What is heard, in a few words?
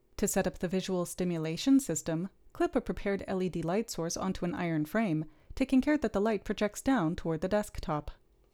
Gong Voiceover